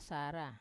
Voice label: "sara", left side, wamesa